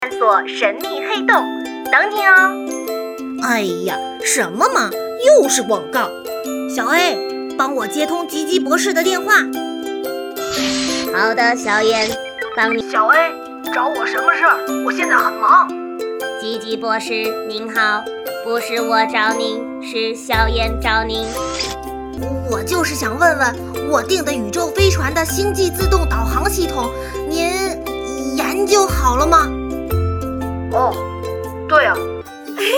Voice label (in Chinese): 动画片配音片段